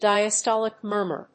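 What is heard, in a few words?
"diastolic murmur", English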